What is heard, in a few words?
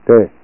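"re", Japanese kana; Learn Kana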